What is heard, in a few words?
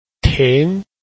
"ting2", Chinese